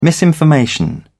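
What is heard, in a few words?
"misinformation", British English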